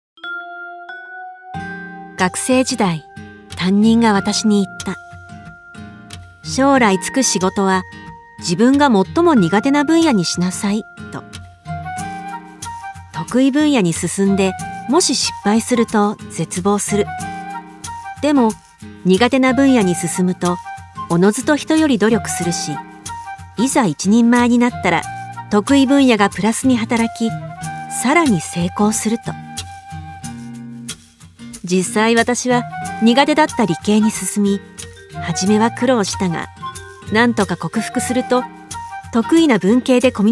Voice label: friendly calm